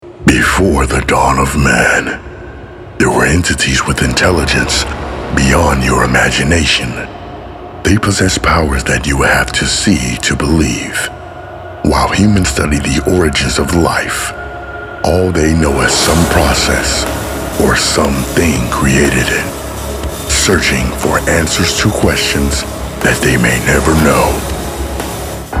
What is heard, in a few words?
Voice Over